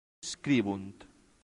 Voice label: "scribunt", latin; santillana

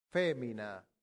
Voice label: "femina", Latin; santillana

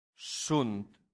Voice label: "sunt", latin; santillana

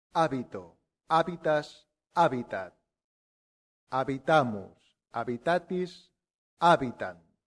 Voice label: "habito", latin; santillana

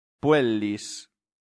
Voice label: "puellis", latin; santillana

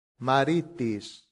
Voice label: "maritis", latin; santillana

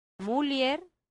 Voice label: "mulier", latin; santillana

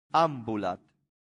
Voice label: "ambulat", Latin; santillana

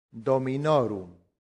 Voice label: "dominorum", latin; santillana

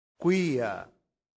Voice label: "quia", latin; santillana

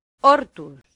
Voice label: "hortus", latin; santillana

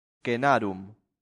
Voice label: "cenarum", latin; santillana

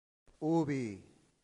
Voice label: "ubi", latin; santillana

